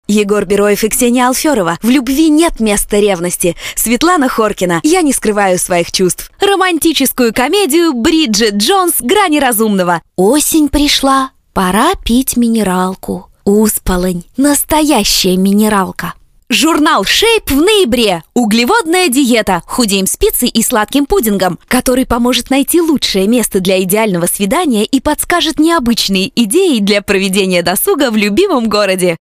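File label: russian female commercial; voice demos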